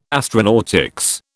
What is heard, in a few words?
"astronautics", British